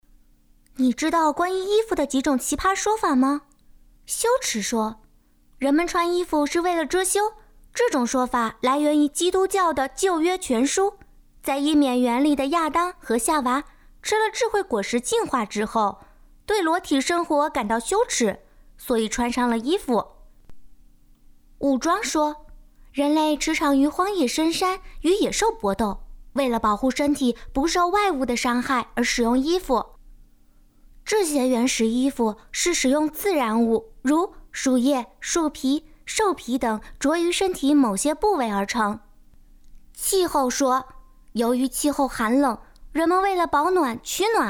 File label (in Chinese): 视频衣服卡通配音